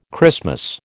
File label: "Christmas", American